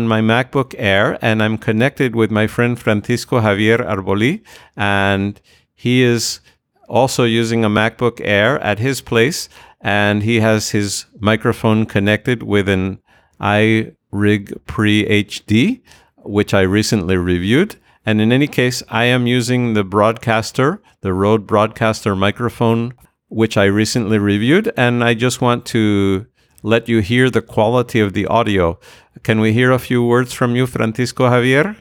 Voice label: Cleanfeed test nivelado